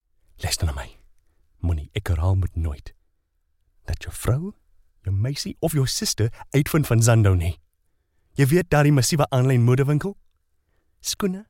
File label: Afrikaans VO; 20s -40s; Male; Native Sound File